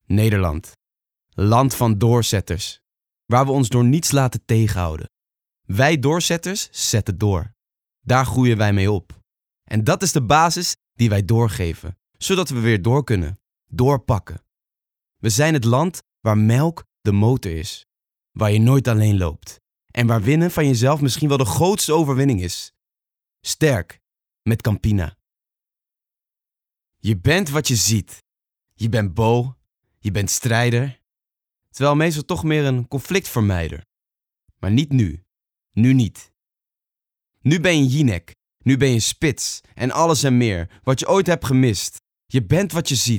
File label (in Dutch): Verhalend Warm